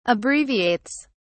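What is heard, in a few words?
"abbreviates", British English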